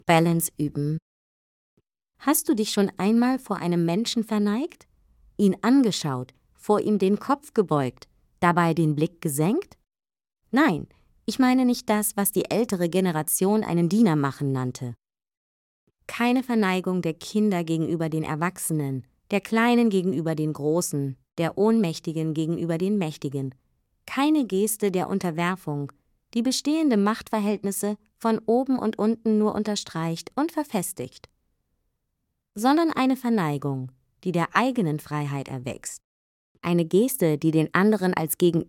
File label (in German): Text Meditation